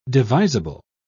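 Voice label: "devisable", British English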